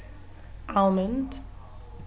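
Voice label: "almond", British English, female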